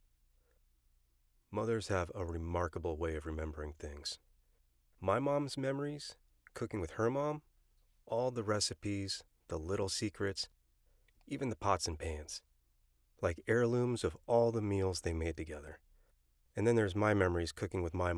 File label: Commercial, voice acting; Farberware